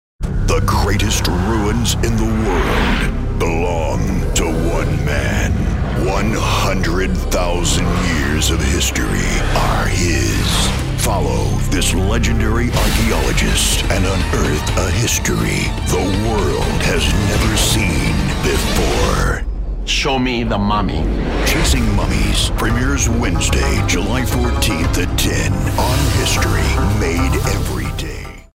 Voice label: imaging

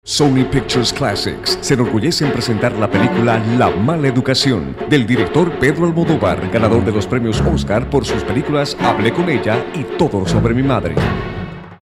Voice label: Movie Trailer

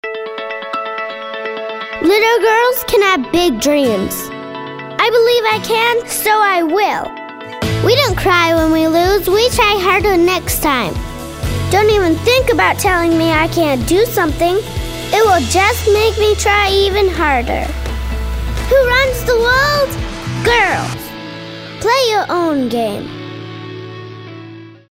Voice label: Inspirational

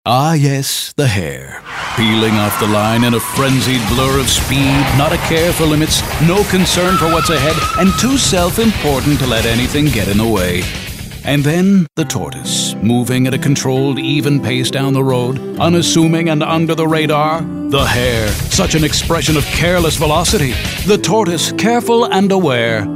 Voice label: storyteller

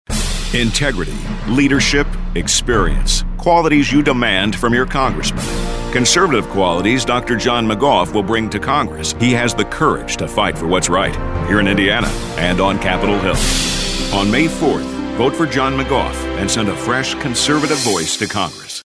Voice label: political motivational

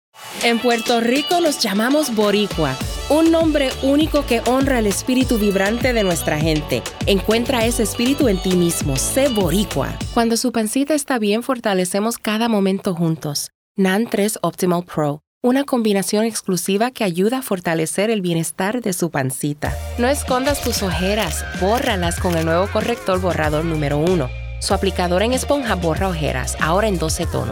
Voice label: Spanish Commercial

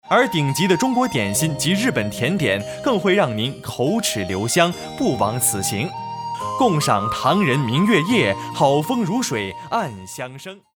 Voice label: Foreign Commercial

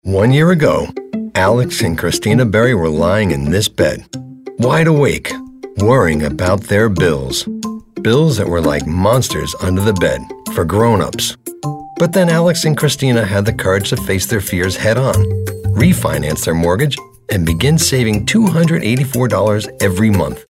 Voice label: storyteller

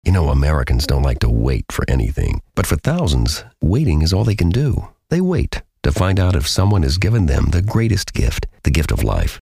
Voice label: soft spoken

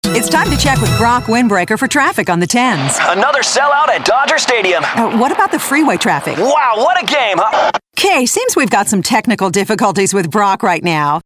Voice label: Newscast

